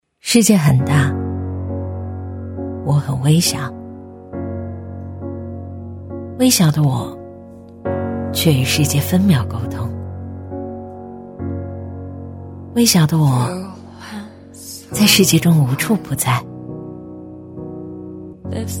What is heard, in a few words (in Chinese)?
女, 抖音宣传片; 高端大气; 女29-大气磁性